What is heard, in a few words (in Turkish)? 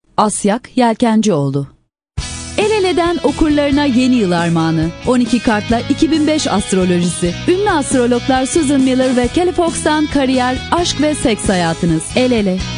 turkce kadin